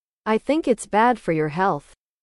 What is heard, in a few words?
english